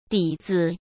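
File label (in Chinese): "底子" Mandarin Chinese; chinese-flashcards; hsk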